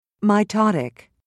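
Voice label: "mitotic", British English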